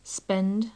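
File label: "spend", British English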